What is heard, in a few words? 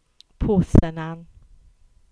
Cornish; Sennen Cove